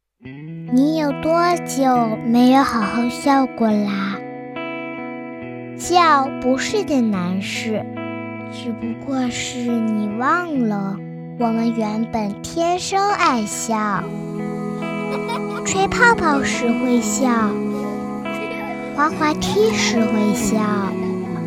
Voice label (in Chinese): 真童